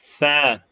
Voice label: short voyels arabic alphabet